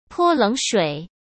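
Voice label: "泼冷水", Mandarin Chinese; hsk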